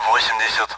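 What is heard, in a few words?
Speech Sound Russian Common AWACS Numbers; DCS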